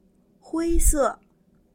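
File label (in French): "gris", French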